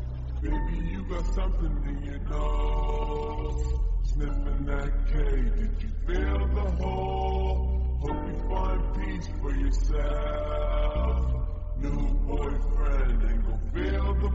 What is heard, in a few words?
english or spanish meme sound effect